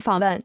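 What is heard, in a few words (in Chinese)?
"访问" Chinese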